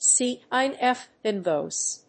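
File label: "C.I.F. invoice", English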